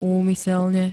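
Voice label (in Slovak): "úmyselne", Slovak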